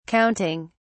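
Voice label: "counting", British English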